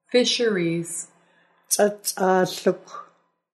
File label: Fisheries, Nuu-chah-nulth